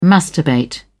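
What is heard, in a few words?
"masturbate", British English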